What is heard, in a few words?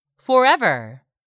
"FOREVER", english; 3000 words